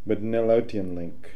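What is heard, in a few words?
"Mdnel Outianlink", pronunciations; Fourth Way; Beelzebub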